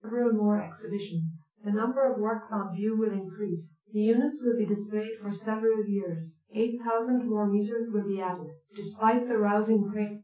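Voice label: female speech; SiSEC10; underdetermined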